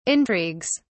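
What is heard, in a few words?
"intrigues", English, uk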